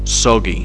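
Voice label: "soggy", English; fonetica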